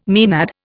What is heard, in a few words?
"maenad", Indian